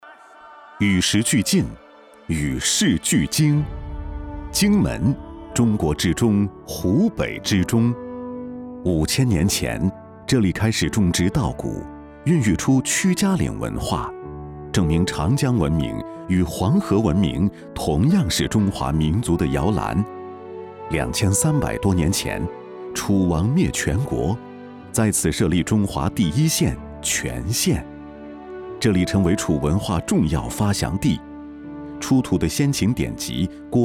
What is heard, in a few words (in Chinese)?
男 大音 城市招商 纪录 招商片; 荆门招商片